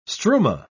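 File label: "struma", British English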